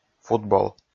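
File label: "футбол", Komi, male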